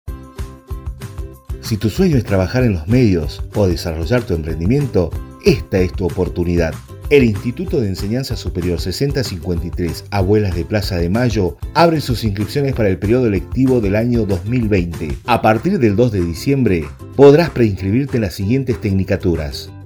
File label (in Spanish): Publicidad Institucional